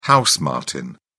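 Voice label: "house martin", British English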